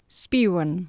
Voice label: "spiwan", Anglo Saxon